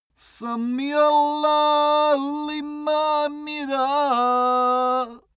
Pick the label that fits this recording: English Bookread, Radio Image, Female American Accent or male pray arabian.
male pray arabian